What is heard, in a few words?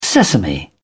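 "sesame", British English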